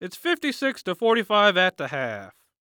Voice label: Sports Human Voice Male Young Man Basketball Announcer